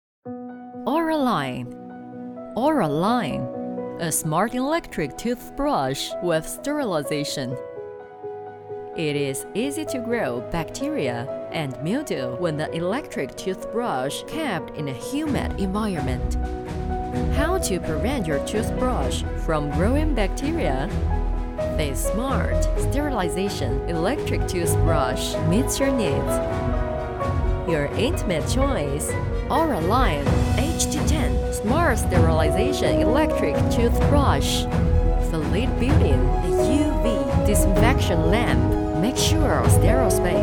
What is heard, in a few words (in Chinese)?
女 英语广告 国际品牌; SMART